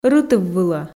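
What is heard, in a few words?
"рытыввылӧ" Komi, female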